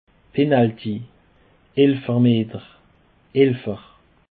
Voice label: "penalty", Alsace